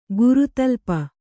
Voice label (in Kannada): "ಗುರುತಲ್ಪ", Kannada